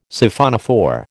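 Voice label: "Siphonophore", American English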